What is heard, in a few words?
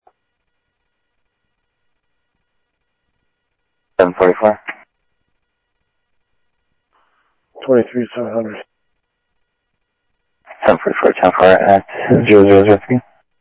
South Scottsdale Sheriff, scanner audio